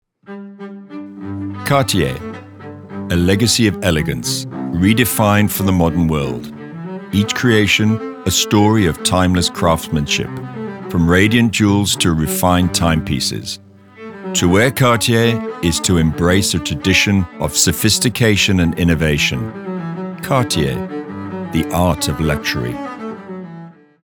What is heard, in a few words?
Soft Sell; Cartier